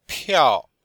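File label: "piao4", Mandarin Chinese; Cours de Chinois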